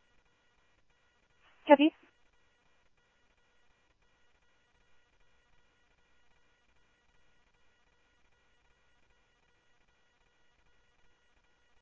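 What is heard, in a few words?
police radio scanner traffic, Mesa Simulcast; MESA PD 1 Fiesta; MesaPoliceDep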